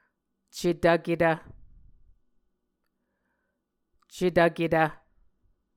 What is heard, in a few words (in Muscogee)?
"cetvketv", Muscogee